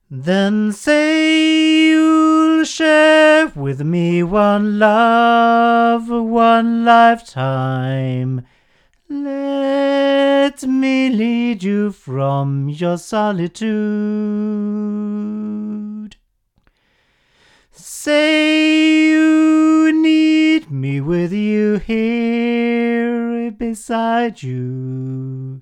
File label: Golden Age R2 male